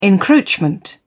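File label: "encroachment", British English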